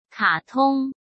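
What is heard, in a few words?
"卡通", Mandarin Chinese; chinese-flashcards; hsk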